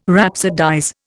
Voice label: "rhapsodize", Indian

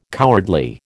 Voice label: "cowardly", American

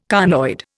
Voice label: "conoid", Indian

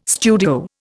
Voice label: "studio", Indian

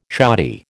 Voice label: "shoddy", American

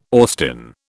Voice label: "Austin", British

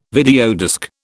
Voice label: "videodisc", British

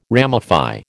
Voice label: "ramify", American

